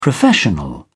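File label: "professional", English, British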